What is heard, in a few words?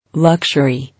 "Luxury", English